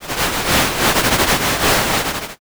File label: whisper